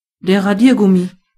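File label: eraser, German voices words; gcompris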